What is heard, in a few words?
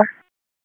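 Police Northwest District Tac, radio; Raleigh; p25; wake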